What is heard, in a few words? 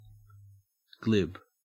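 "glib", Australian English; pronunciations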